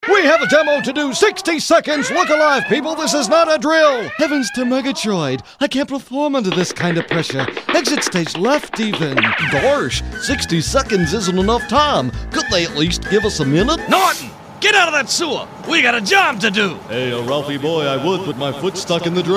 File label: M Characters